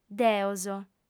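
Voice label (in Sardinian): "deos", female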